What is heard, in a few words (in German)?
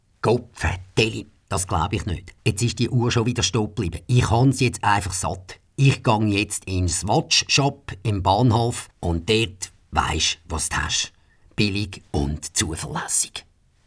Werbung Zuerich; Theater